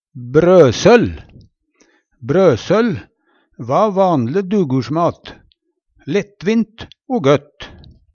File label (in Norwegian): "brøsøll", Numedalsmål; Substantiv hankjønn; Hushald, mat, drikke